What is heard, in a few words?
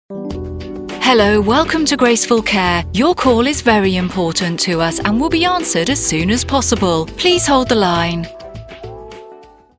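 uk, female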